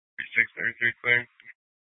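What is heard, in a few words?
Police Dispatch, Scanner audio; Police 1 Dispatch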